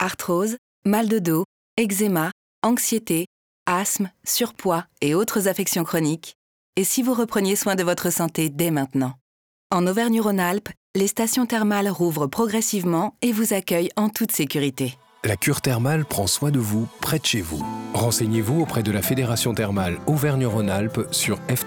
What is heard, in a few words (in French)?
RADIO; Rhône-Alpes